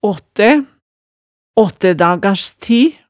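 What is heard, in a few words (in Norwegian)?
"aattæ", Hemsedal dialect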